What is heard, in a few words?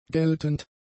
"geltend", German; adjectives